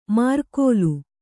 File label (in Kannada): "ಮಾರ್ಕೋಲು", Kannada